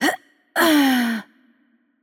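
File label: Turkish death voice; bloom minigame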